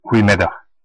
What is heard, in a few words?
good afternoon, west flemish